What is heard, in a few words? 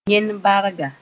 "yẽn-barga", Mooré